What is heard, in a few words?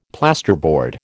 "plasterboard", American